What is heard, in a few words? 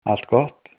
fine, icelandic